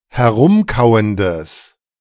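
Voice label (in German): "herumkauendes", German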